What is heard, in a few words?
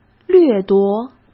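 "掠夺", Mandarin Chinese; hsk; chinese-flashcards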